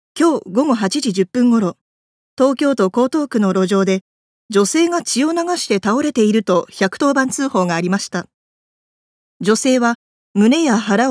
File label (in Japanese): ニュース